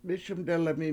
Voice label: "mIS1mdelemi", washo; I Hear You Singing